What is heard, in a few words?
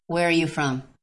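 VoiceActing Spanish, female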